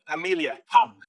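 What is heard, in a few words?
VoiceActing es, Male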